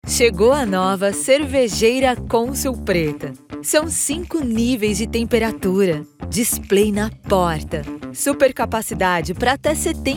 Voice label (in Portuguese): Locucao